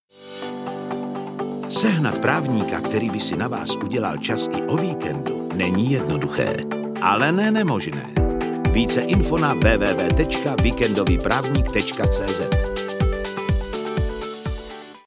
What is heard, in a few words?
czech commercial